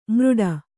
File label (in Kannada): "ಮೃಡ", Kannada